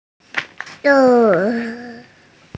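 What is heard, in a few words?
"dong1", Mandarin Chinese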